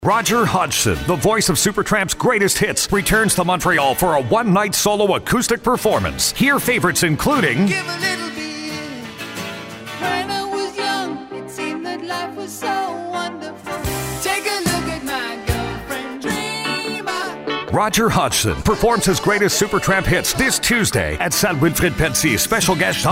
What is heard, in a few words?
Radio Ad; Montreal